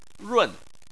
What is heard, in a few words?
"run4", Chinese